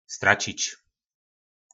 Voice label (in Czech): "Ztračič", po našimu; Ztratit